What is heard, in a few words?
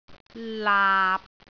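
"laap6", Cantonese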